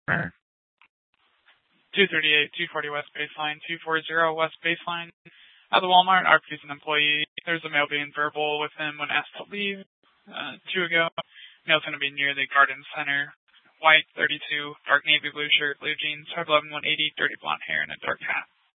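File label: police dispatch radio, scanner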